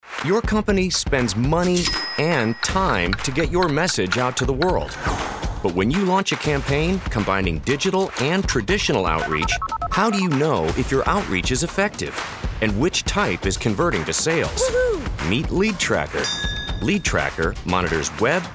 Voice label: Explainer Video